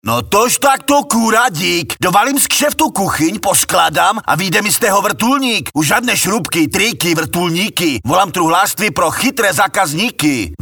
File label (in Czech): reklama